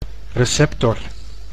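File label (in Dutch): "receptor", Dutch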